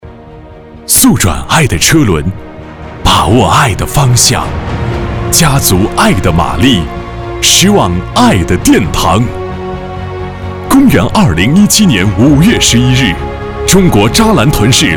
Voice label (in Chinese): 男 开场 婚礼开场, 大气